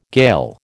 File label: "Gael", American